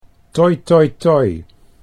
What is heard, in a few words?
toi toi toi, Dutch